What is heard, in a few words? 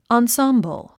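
"ensemble", English word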